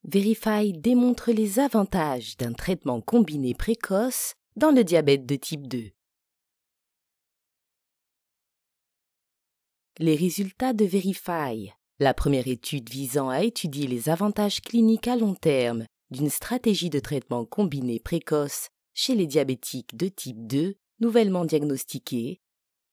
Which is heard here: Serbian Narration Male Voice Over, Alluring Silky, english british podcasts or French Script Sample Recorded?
French Script Sample Recorded